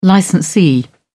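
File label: "licensee", British English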